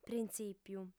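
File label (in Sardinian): "printzìpiu", female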